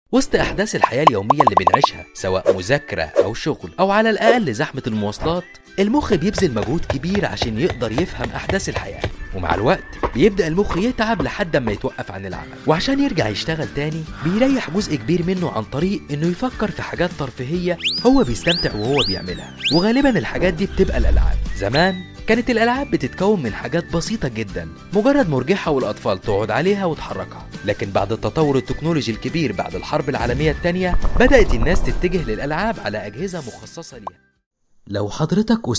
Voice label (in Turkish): Arapca Erkek Misir Arapcasi, seslendirme; erkek sesler